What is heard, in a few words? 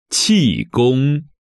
"Qigong", Chinese